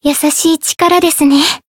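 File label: healed, Japanese; tsukiha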